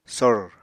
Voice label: "sor", apatani

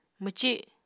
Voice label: "mwci", Apatani

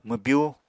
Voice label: "mwbyú", Apatani

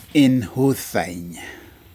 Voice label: seashell "inhudain", Aneityum

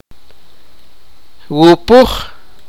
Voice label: sipakapense; blow

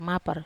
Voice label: "mapar", Wamesa

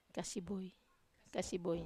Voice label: "kasiboi", Wamesa

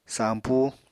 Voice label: "sampú", Apatani

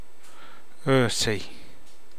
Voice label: brother in law, Namolukese

